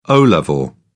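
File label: "o level", English, British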